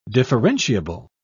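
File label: "differentiable", British English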